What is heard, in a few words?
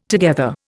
"together", Indian